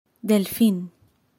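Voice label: Dolphin, Spanish; sjopattedyr; delfin; Uttale; marinleksikon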